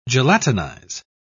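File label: "gelatinize", British English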